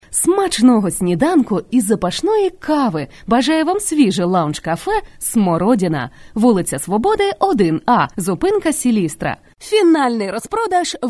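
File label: Ukrainian Female; Russian Female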